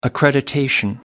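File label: "accreditation", British English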